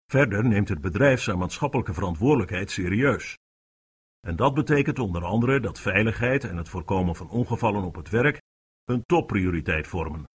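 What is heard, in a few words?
Dutch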